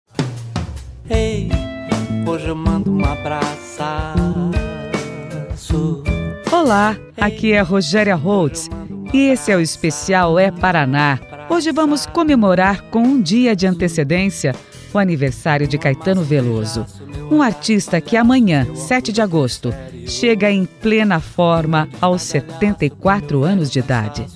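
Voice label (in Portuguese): Feminino, Português - Brasileiro, Radio, Voz Padrão - Grave; rock de Curitiba, Estação Primeira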